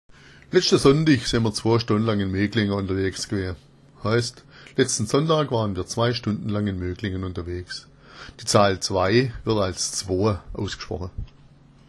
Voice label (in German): zwoa, schwaebisch